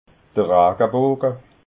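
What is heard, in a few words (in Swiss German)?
"ragaboga", Alsatian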